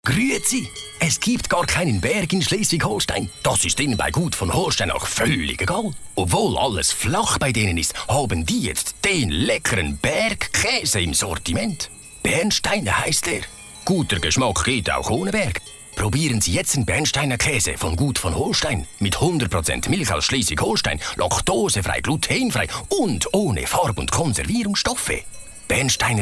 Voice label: male swiss german cheese commercial